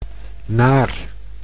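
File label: "naar", Dutch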